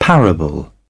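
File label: "parable", British English; AmericanBritish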